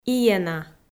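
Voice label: "иена", Komi-Zyrian, female; audio dict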